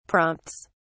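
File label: "prompts", British English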